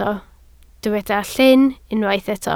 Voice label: "llyn" Welsh

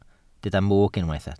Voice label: "mwg", Welsh

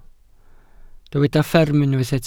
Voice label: "fferm" Welsh

